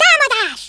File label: "fireball", Hungarian; Worms Armageddon